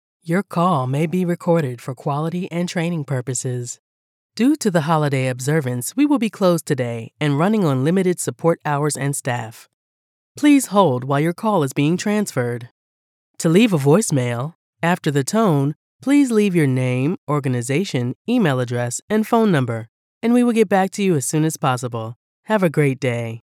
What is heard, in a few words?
IVR